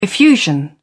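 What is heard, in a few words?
"effusion", British English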